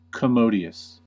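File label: "commodious", US English; pronunciations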